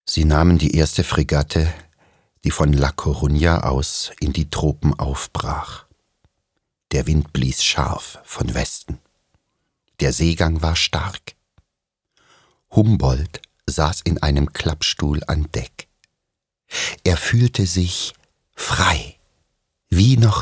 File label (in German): Hoerbuch